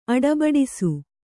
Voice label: "ಅಡಬಡಿಸು", Kannada

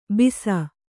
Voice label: "ಬೂಸಿ", kannada

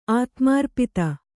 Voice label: "ಆತ್ಮಾರ್ಪಿತ", Kannada; ātmārpita

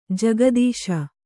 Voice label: "ಜಿಘತ್ಸು", Kannada

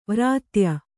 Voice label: "ವೃಥಾಯ", Kannada

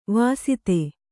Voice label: "ವಾಸಿತೆ", Kannada

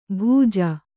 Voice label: "ಭುಜ", kannada

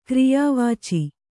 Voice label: "ಕ್ರಿಯಾವಾಚಿ", kannada

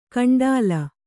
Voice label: "ಕಂಡ್ಲಾ", Kannada

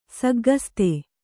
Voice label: "ಸಗ್ಗಸ್ತೆ", Kannada

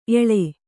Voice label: "ಎಳೆ", Kannada

